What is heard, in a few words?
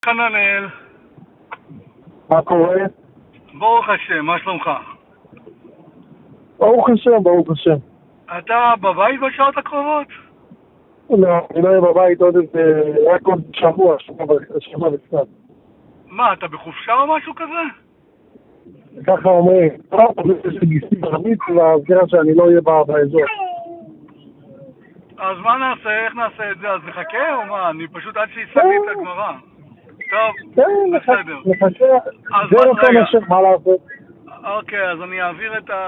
Call recording